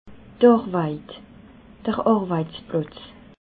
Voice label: "arwet", alsace

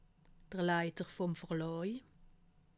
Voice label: "leiter", alsace

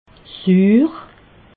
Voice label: "suur", alsace

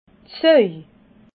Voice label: "saui", alsace

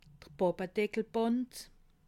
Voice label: "pappedeckelband", alsace

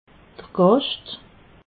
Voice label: "gascht", alsace

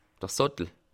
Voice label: "sattel", alsace

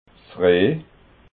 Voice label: "reh", alsace

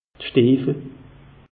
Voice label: "stiffel", alsace; meteo

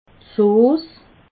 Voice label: "sos", alsace

